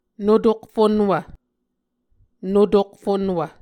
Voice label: "notokfunwv", Muscogee

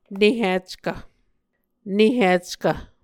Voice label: "nehickv", Muscogee

